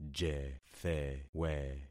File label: "jethewe", concat syllables; Affixing experiment